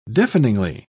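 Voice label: "deafeningly", British English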